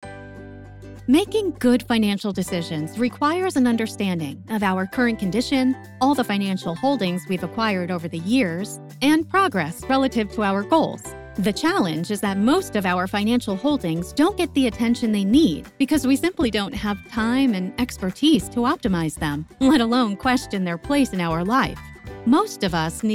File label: Female Voice - Marketing Videos; Female voiceover preview; Custom Branded Video (Discovery Ending); Asset-Map Elite